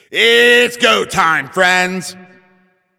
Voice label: Game Start, Announcer